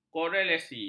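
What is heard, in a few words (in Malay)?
"korelasi", Malay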